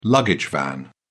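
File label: "luggage van", British English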